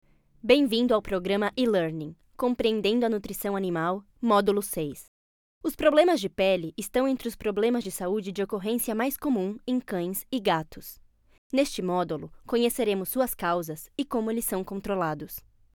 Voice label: brazilian f learning, portuguese